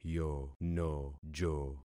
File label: "yonojo", concat syllables; Affixing experiment